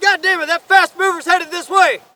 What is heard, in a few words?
spot; bf3